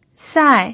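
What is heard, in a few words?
"sai" Mandarin Chinese, fourth tone, pinyin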